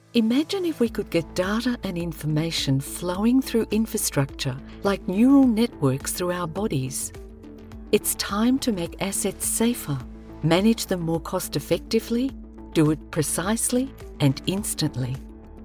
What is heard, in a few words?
Animation Finance